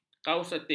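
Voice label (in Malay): "kausatif", Malay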